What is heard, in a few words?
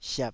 "siap3", taiwanese Syllables